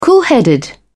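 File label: "coolheaded", British English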